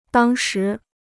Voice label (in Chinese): "当时", Chinese